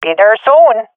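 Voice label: omw, swat female; vo; Counter Strike Model Pack; CS:GO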